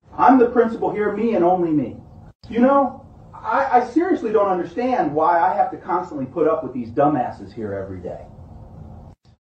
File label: principal deep fake; maryland 2024; ai and democracy